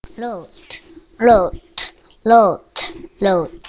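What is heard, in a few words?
"lute", spoken word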